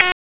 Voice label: Police Spec Ops Tac, p25; Raleigh